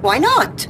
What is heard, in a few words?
"why not", female; questions